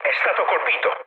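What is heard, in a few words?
Italian aircraft destroyed mood low, speech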